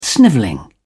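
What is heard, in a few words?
"snivelling", British English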